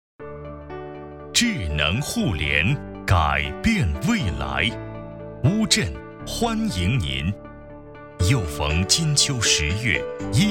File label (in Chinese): 会场提示; 互联网大会